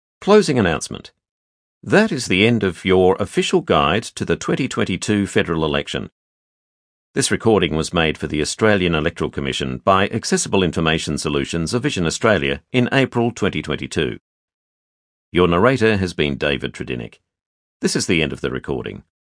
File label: official guide closing announcement